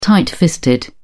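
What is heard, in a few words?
"tight fisted", British English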